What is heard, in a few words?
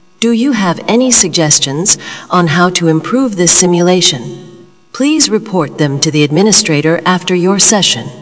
tride announcement